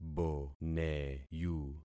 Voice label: "boneyu", concat syllables; Affixing experiment

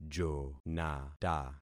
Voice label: "jonata", concat syllables; Affixing experiment

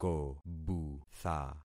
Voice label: "kobutha", concat syllables; Affixing experiment

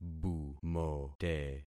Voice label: "bumote", concat syllables; Affixing experiment

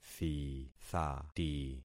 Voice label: "thithati", concat syllables; Affixing experiment

